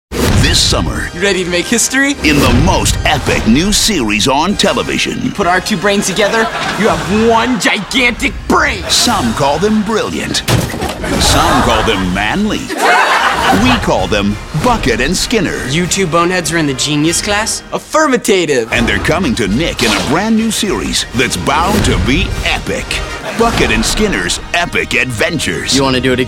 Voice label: promo male kids

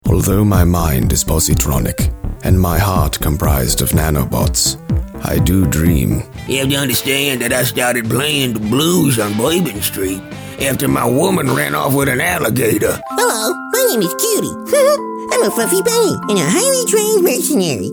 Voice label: animation male

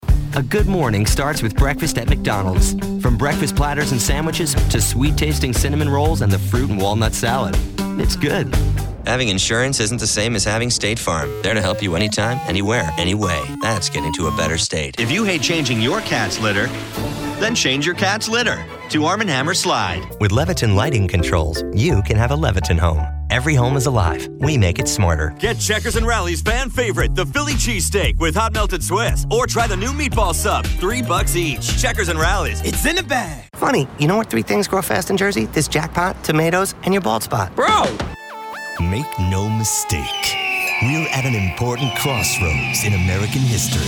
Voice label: commercial men

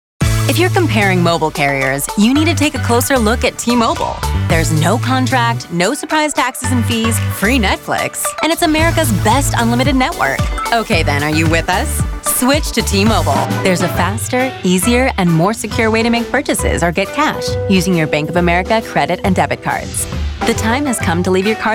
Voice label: commercial female; commercial women

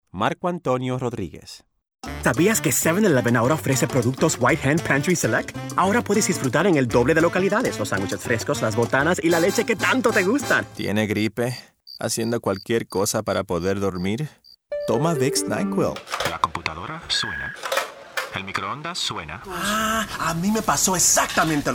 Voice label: languages spanish

